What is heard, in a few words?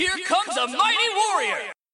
Vs Announcement